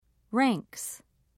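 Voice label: "ranks", British English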